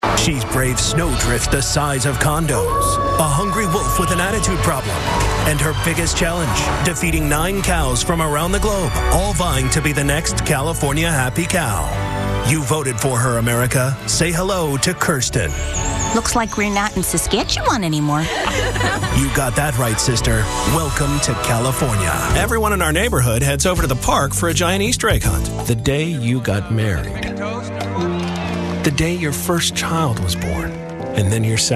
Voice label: commercial, men

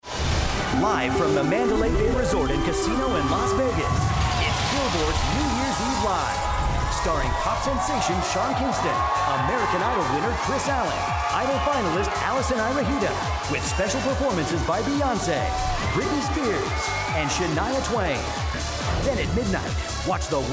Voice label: show announcer; show and stadium announcers

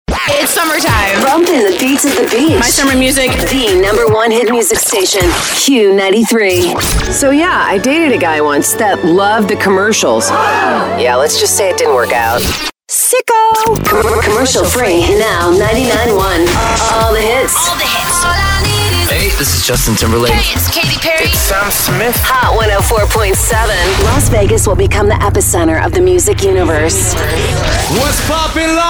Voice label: radio imaging women chr

